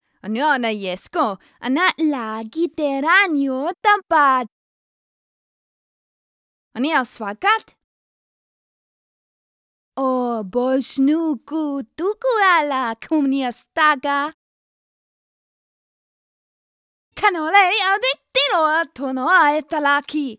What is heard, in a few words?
talk Argue